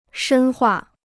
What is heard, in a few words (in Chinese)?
"深化", Chinese